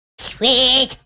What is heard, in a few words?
"Sweet", Furby